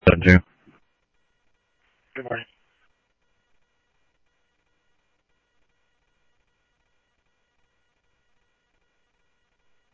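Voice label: Simulcast police department, scanner audio; Mesa Simulcast; MESA PD 1 Fiesta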